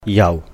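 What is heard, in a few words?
"yàuh", from, Cantonese; 由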